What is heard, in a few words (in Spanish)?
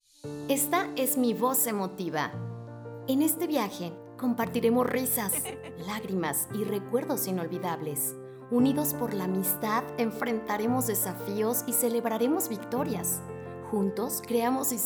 Emotiva; locutora